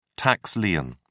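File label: "tax lien", British English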